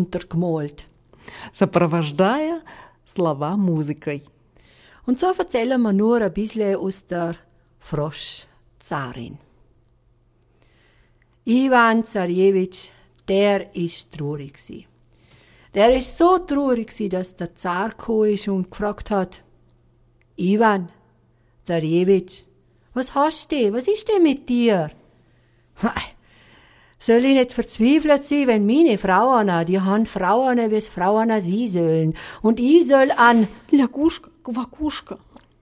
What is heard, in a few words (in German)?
Russische Geschichten dialekt